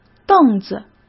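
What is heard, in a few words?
"凳子", Mandarin; chinese flashcards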